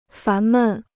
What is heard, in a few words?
"烦闷", Chinese